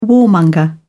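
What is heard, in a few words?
"warmonger", English, British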